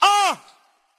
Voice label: "uh", male